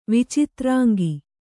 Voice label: "ವಿಚಿತ್ರಾಂಗಿ", Kannada

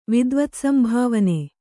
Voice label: "ವಿದ್ವತ್ಸಂಭಾವನೆ", kannada

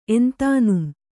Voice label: "ಎಂತಾನುಂ", Kannada

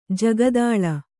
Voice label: "ಜಗದಳ", Kannada

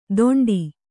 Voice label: "ತೊಂಡಿ", kannada

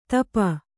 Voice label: "ಧೂಪ", Kannada

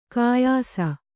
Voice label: "ಘಯ್ಸ", Kannada

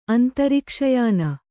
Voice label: "ಅಂತರಿಕ್ಷಯಾನ", Kannada

